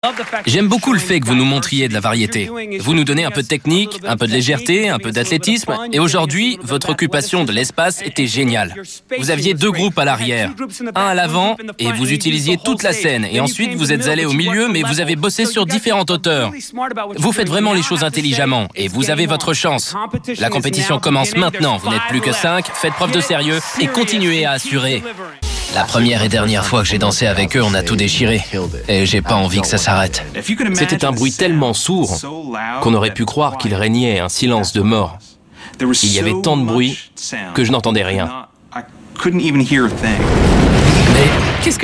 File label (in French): medley voice over